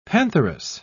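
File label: "pantheress", British English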